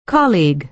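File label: "colleague" American English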